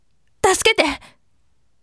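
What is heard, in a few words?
voice, firm; tasukete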